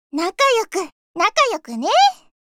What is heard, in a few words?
Voice victory